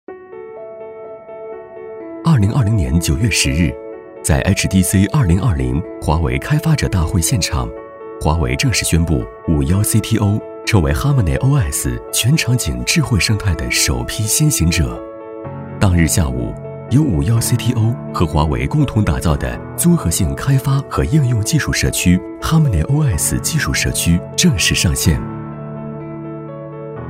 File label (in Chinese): 专题 企业 社区 科技, 男; HormoneOS